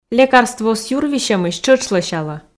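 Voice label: "лекарствоыс юрвисьӧмысь тшӧтш лӧсялӧ", Komi, female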